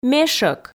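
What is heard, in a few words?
"мешӧк", Komi, female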